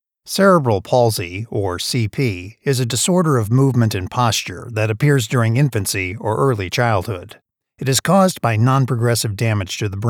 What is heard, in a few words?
Instructional